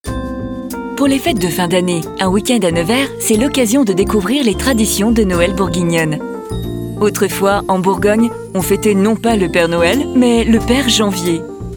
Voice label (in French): VO PUB; INDIGO NEVERS